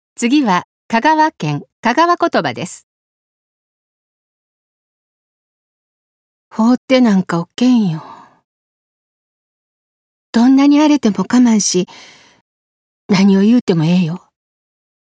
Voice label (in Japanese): 方言